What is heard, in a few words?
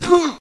human hit; wesnoth